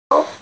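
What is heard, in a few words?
"naughty", hearing impaired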